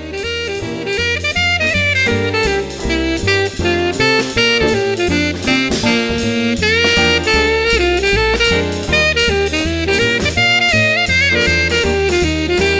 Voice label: Holiday, on hold